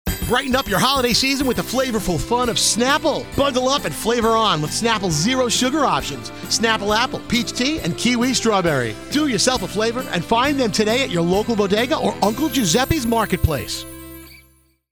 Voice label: English, Christmas Commercials; US; Christmas Commercials 2023; Snapple